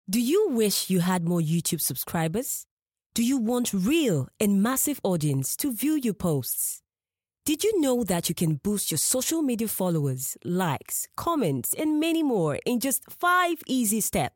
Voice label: accented english female